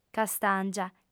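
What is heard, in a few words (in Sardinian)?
"castàngia", female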